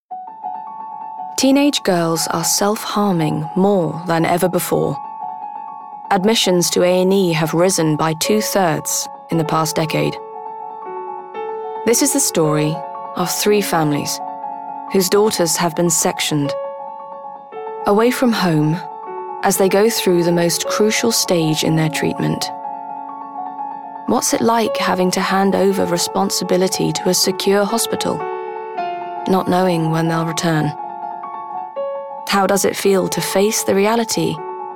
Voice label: documentary; MENTAL HEALTH DOCUMENTARY